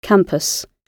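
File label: "campus", British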